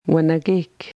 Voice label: "bark", Ojibwe; wanagek; 'bark (of tree)'; Anishinaabemowin Vowels